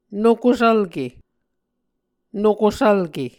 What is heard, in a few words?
"nokosvlke", Muscogee